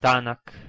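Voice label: "tanak", Bosnian-Croatian-Montenegrin-Serbian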